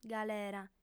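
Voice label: "galera", female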